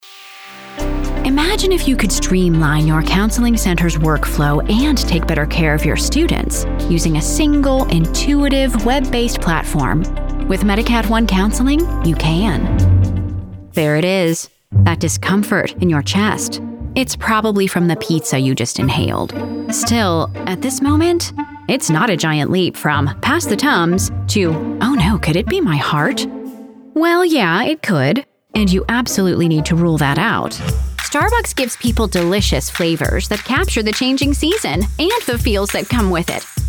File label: NARRATION